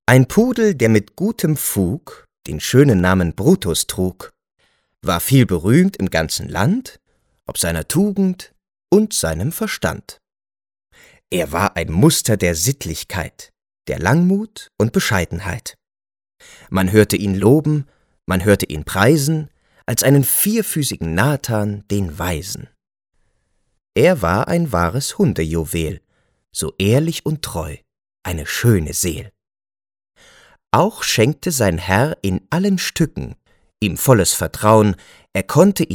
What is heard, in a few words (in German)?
Schauspiel Poetisch